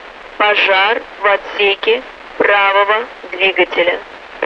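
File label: right engine fire, Russian; Mi-8MT RI65; DCS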